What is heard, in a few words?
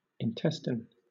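"intestine", British English; pronunciations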